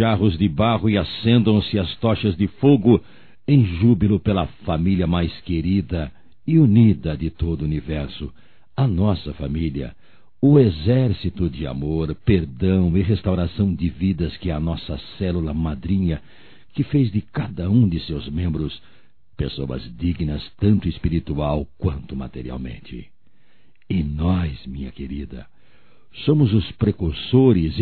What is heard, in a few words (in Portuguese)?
Bodas de Prata Masculino; Bodas de casamento; Telemensagens 2017